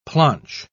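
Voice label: "planch", British English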